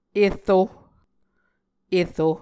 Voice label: "ero", Muscogee